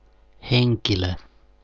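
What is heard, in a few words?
"henkilo", Finnish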